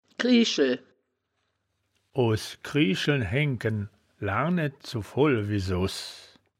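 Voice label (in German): "grieschel", wittlicher woerterbuch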